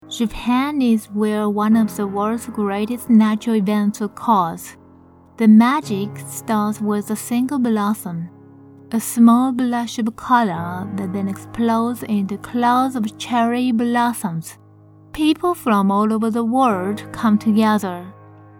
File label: English Japanese Accent English